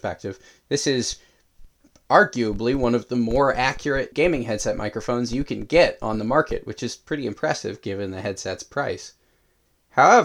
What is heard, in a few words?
Turtle Beach Recon 200 mic sample